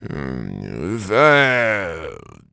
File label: Mumble; Salvatore; zelda sounds